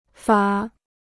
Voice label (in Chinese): "法", Chinese